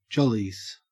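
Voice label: "jollies", Australian English; pronunciations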